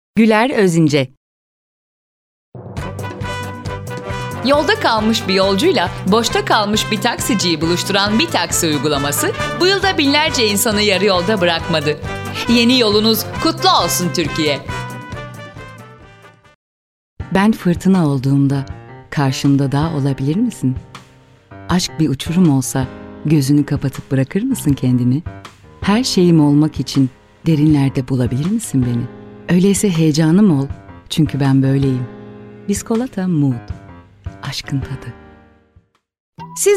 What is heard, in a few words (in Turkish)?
turkce kadin